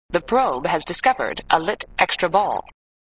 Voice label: Voice Computer; Computer The Probe has discovered a lit extra ball; flipper; sttng